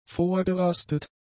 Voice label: "vorgerastet", German; adjectives

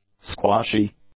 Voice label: "squashy", english; adjectives

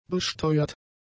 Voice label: "besteuert", German; adjectives

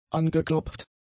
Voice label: "angeklopft", German; adjectives

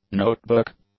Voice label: "note book", English; nouns

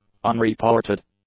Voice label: "unreported", english; adjectives

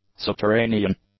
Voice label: "subterranean", English; adjectives

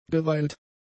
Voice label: "geweilt", German; adjectives

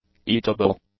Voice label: "eatable", English; adjectives

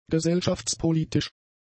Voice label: "gesellschaftspolitisch", German; adjectives

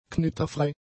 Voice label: "knitterfrei", German; adjectives

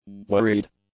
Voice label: "worried", english; adjectives

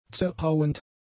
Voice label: "zerhauend", German; adjectives

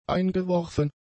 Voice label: "eingeworfen", German; adjectives

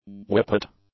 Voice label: "whippet", English; nouns

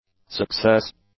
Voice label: "success", english; nouns

